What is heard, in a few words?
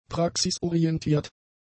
"praxisorientiert", German; adjectives